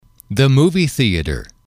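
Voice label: "movie theater", English, male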